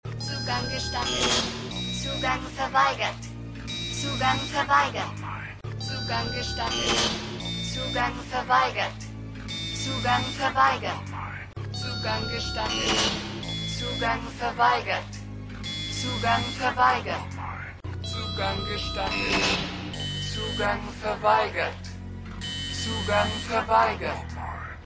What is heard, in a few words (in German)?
Computerstimme; Outer Limits